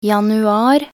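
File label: "januar", Norwegian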